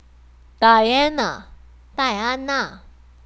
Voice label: Dania in chinese